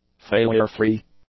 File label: "failure-free", english; adjectives